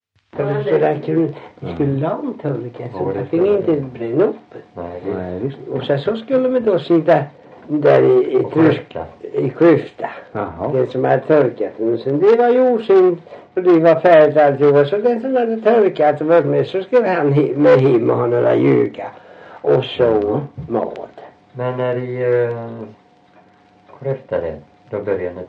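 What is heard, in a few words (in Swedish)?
Värö Halland, dialekter svenska sagor; Folkminnen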